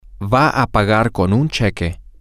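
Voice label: she is going to pay with a check, spanish, female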